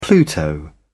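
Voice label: "pluto", British English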